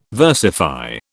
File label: "versify", British